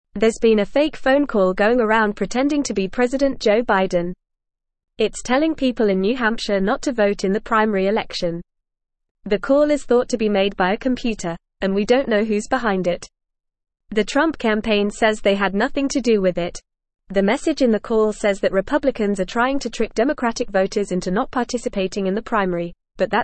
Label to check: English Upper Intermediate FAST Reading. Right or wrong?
Right